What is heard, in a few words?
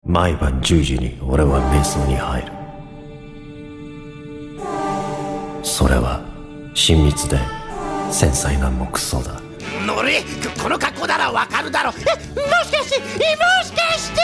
male japanese character